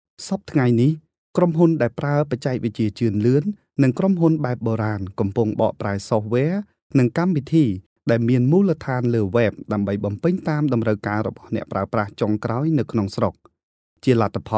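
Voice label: Khmer narrative; GoLocalise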